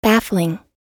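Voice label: "baffling", American English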